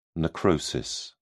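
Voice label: "necrosis", British English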